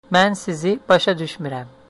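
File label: no understand, Azerbaijani; Mən sizi başa düşmürəm; I don't understand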